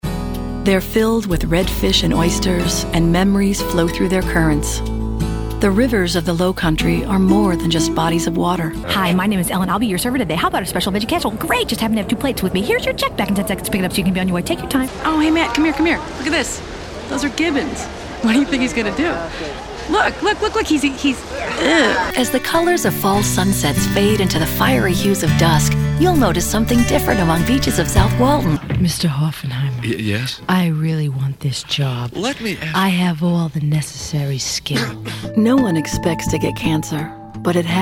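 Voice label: Male Talent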